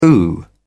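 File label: "ooh", British English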